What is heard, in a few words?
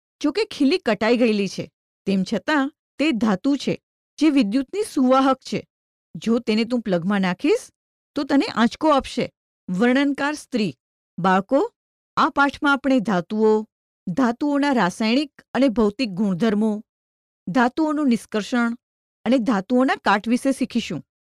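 Gujarati female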